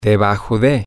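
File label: underneath, Spanish, male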